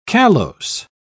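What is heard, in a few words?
"callose", British English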